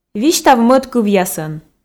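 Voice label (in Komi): "висьтав мӧд кывъясӧн", Komi, female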